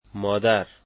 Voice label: "mother", Dari

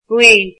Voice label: "yellow", Irish

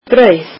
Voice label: icelandic bread

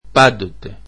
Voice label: "always" greek

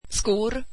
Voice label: swedish shoes

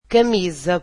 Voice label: "shirt", Portuguese

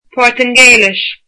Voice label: "Portuguese", Irish

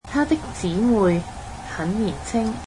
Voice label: cantonese his sisters are young

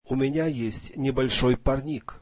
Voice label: russian I have a small green house

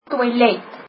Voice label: toilet, afrikaans

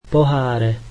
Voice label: slovak cups

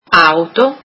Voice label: cars, italian

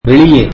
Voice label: tamil outside

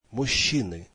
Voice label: russian men

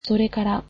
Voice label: "Then", japanese

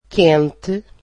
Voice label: "warm", portuguese

